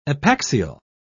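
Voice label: "epaxial", English, British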